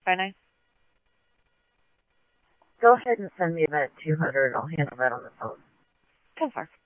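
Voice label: Simulcast PD Police Department, scanner audio trunk; Mesa Simulcast; MESA PD 3 Red Mt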